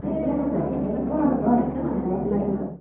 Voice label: Speaking voice